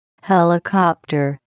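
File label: "helicopter", English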